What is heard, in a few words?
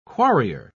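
"quarrier", English, British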